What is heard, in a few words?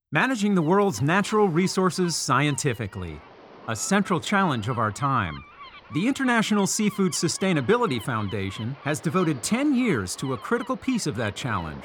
english american documentaries